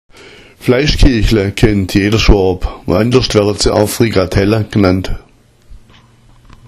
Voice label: fleischkiachla, schwaebisch; Fleischkiachla kennt jeder Schwõb, sie werden auch Frikadellen genannt

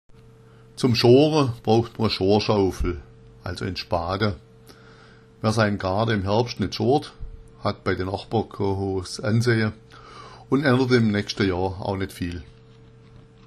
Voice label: schora, schwaebisch

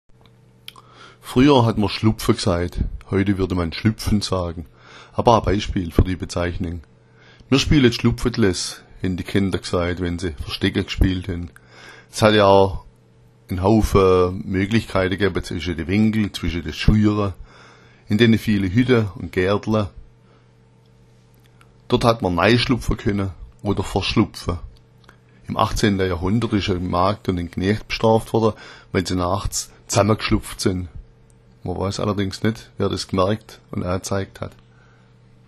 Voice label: "schlupfa", schwaebisch

